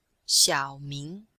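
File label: "xiao3 ming2", Chinese, female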